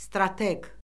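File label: "strateq", Azerbaijani; Orfoqrafiya Lüğəti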